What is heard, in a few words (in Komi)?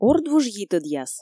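ордвуж йитӧдъяс, Komi, female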